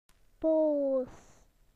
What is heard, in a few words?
"angry", BE; PictureDictionary